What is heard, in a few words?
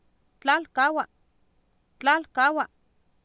"tlAalkAawa" Nahuatl, Oapan, female; Oapan minimal pairs 2000